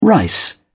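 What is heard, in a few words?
"rice", British English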